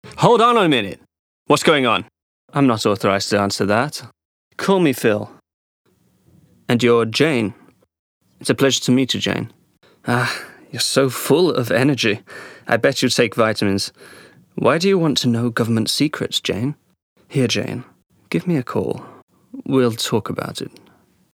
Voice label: movie, US